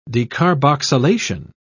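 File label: "decarboxylation", English, British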